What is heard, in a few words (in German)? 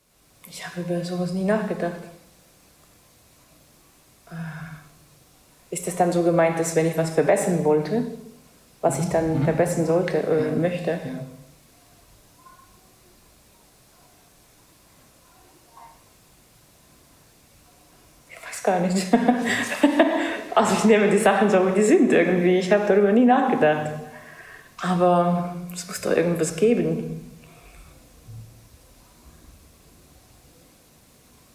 estnisch